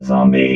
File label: "zombie", robot